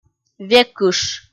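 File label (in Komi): "векыш", Komi, female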